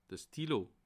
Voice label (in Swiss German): "stylo", alsace